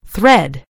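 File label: "thread", British English; gcompris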